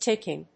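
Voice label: "ticking", English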